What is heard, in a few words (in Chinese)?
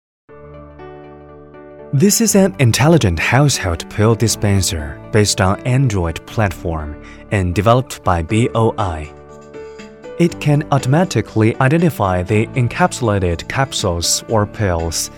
男 英文 自然表述